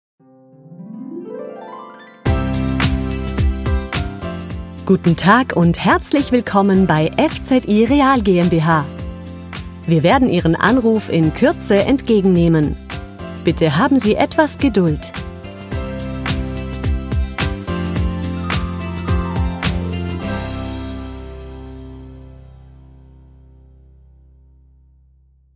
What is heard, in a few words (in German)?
Telefonanlage Begruessung; FZI Real GmbH; LOFT VOICES